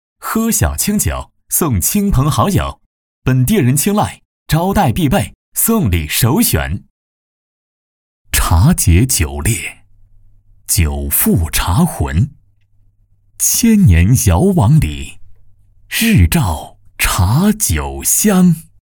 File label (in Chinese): 广告; 喝小青酒